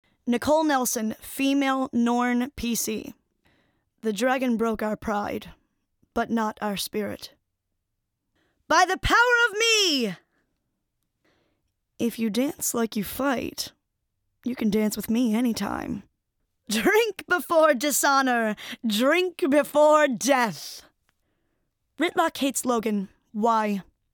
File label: Female Norn